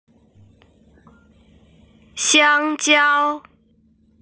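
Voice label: "banana", Mandarin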